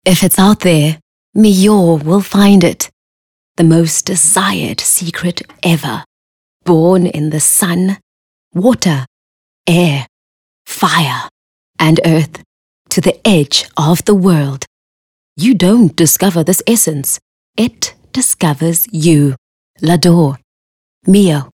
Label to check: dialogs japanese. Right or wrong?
Wrong